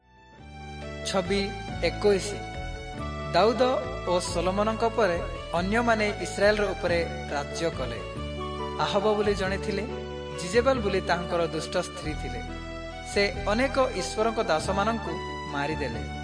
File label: southern oriya; servants of god